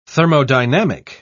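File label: "thermodynamic", British English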